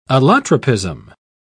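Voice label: "allotropism", British English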